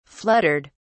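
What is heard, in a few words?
"fluttered", British English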